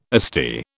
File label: "Asti", British